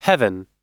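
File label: "heaven", English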